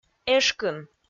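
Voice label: "эшкын", Komi, female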